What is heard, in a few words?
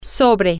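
on top of, Spanish, female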